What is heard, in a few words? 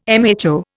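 "mho", English, Indian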